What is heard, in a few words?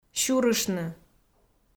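"сюрыштны" Komi, female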